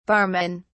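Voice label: "barmen", British English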